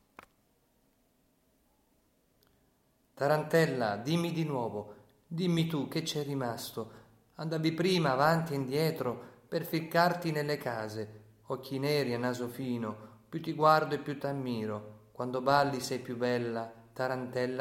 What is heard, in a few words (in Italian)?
recitato Zumparèddë Monte; Testo in dialetto montanaro